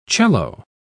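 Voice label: "cello", English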